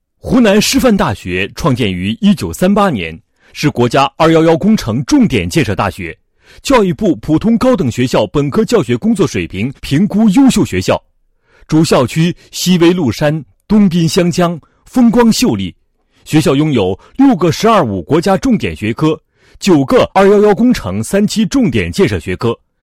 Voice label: 男, 视频解说; 创新创业教育基地宣传视频

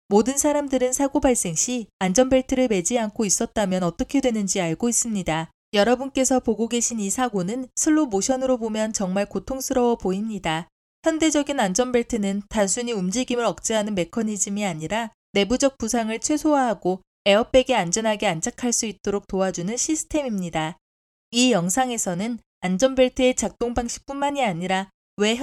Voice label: 韩语女 自然陈述

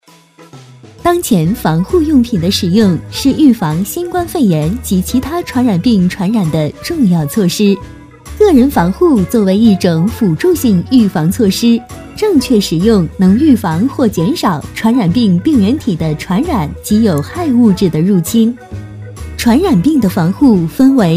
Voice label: 女声 病毒防护

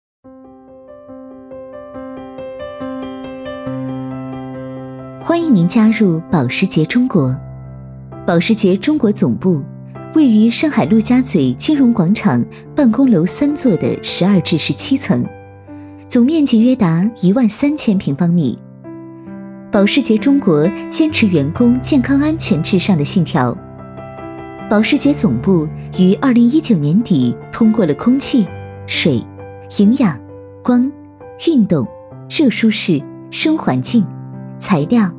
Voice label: 语音提示 温馨提示; 保时捷

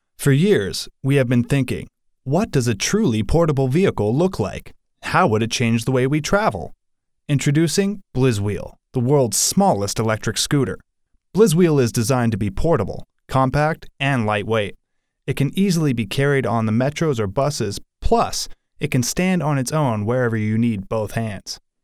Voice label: 滑板车介绍 轻快

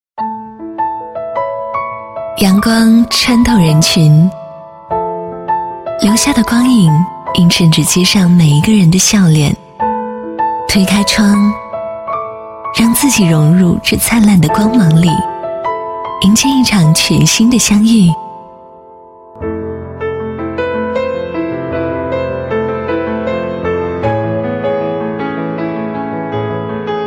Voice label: 女,品牌广告; Bvlgri

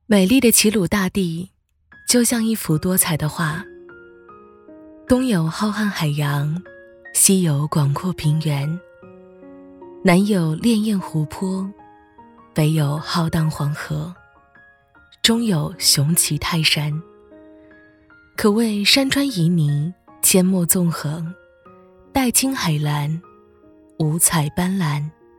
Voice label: 女,独白

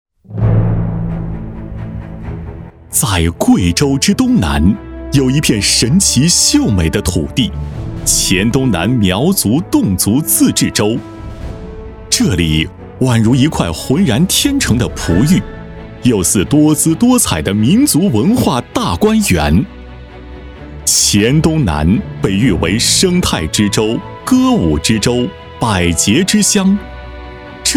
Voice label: 男 专题片 大气激情 民族文化生态保护

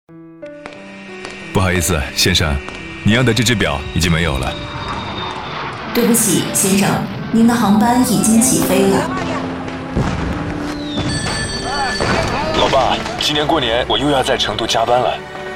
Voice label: 男 电台 温暖 沉稳; 二郎山喇叭河

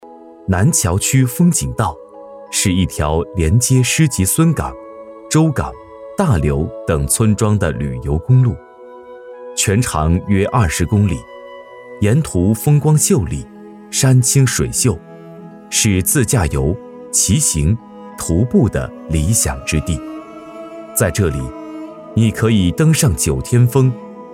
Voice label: 男 娓娓道来 旅游景点解说

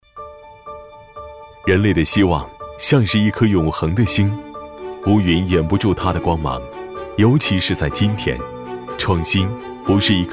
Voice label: 男 宣传 芯片产品宣传 科技感

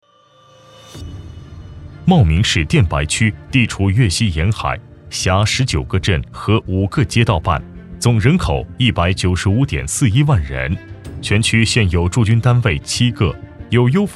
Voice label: 男 双拥 政府汇报

